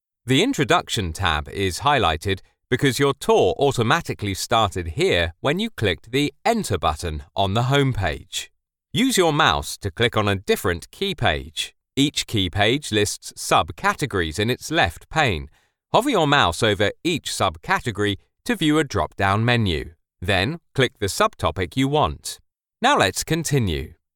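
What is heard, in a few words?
e-learning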